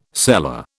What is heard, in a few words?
"seller", British